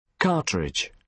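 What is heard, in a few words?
"cartridge", British English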